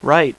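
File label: "right", male; VOICE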